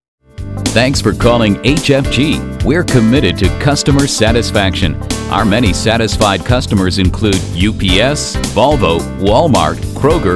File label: Voice On Hold